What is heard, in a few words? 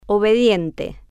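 "obediente", Spanish, female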